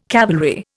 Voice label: "cavalry", Indian